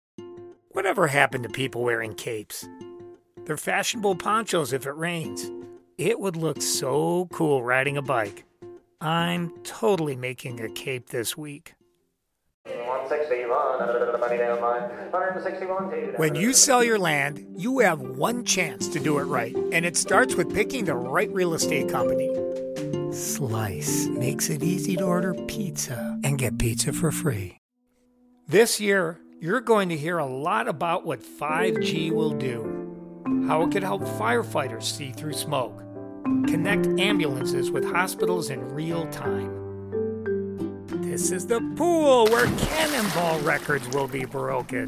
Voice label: Commercial, Male voices